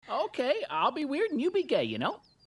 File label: english; fushigiyuugi; chichiri